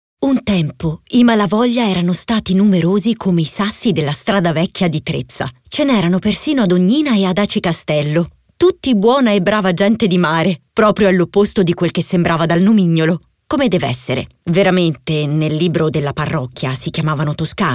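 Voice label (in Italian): Descrittivo, voci femminili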